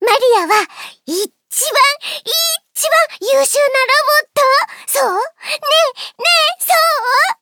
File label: victory jp